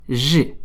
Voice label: French alphabet g

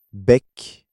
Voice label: "bec", French

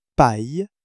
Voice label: "paille", French

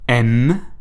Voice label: alphabet "m", French

